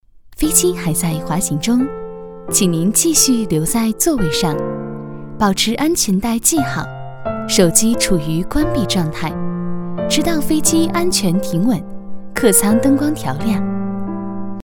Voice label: 女, 广播提示, 机舱广播

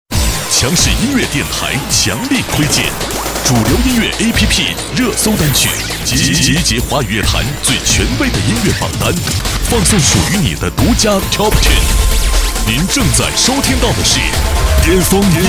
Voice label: 男60号 节目包装; 国语男声配音; 交广主播; 巅峰音乐时间

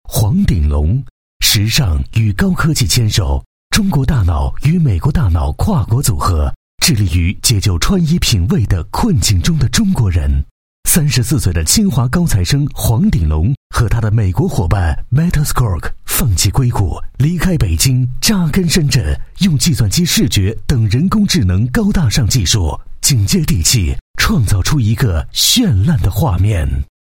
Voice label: 男 纪录片 人物颁奖介绍 大气 激越, 国语男声配音

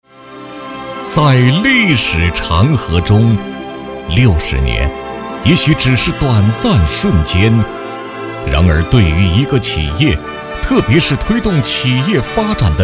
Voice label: 男 企业 国企红色经典类, 国语男声配音; 中铁十九局

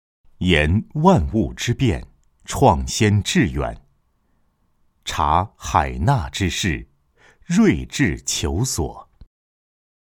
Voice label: 企业专题, 国语男声配音, 温情; 人物专题; 金太阳

